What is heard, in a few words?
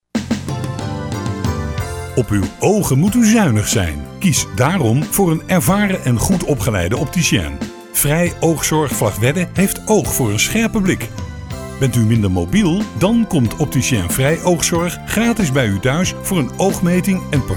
spot radio; Frey oogzorg; westerwolde